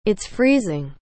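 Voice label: "it's freezing", English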